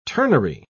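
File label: "ternary", English, British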